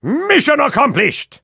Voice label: spy high five success